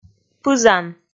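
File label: "пызан", Komi, female; kpv audio dict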